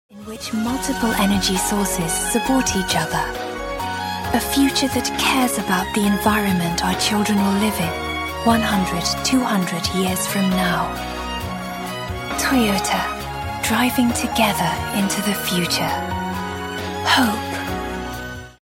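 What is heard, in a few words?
narration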